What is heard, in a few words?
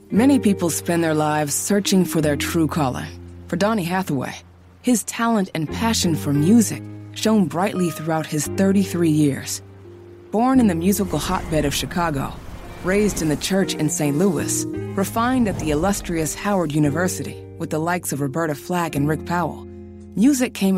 Narration Demo